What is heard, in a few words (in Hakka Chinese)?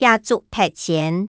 "這粥忒鮮", 客語